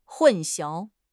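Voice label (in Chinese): "混淆", Chinese; HSK6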